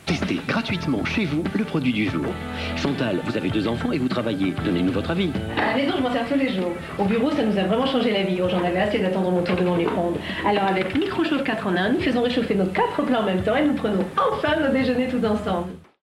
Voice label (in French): Pub Micro chauffe 4 en 1; TMC